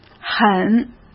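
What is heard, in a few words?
"很", Mandarin Chinese; hsk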